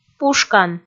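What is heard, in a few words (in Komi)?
"пушкан", Komi, female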